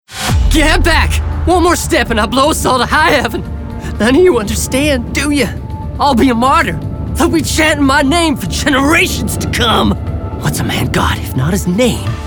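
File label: Video Games, voiceover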